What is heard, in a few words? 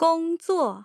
gong zuo, tone pairs